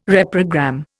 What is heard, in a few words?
"reprogram", Indian